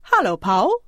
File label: Conversation